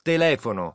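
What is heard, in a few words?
"telefono", Italian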